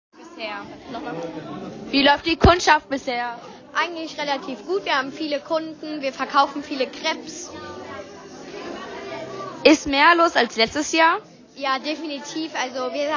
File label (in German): Sprachnachricht